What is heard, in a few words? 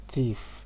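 "thief", Nigerian Pidgin, male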